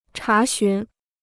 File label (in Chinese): "查询", Chinese